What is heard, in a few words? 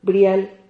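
"brial", Spanish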